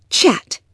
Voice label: chat

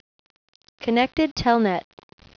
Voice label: Connected Telnet (Female)

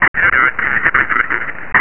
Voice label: Chatter

